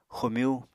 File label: "xomyú", Apatani